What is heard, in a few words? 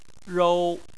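"rou", Chinese, tone 1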